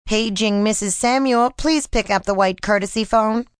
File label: Paging, PA, female; Pa Announcement; Paging Samuel2; VoiceBox